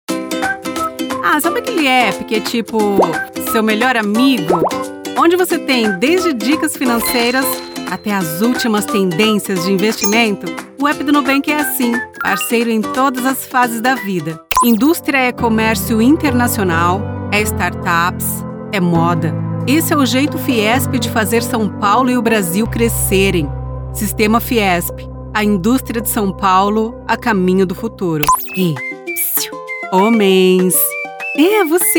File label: comercial corporate